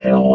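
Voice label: "hill", robot voice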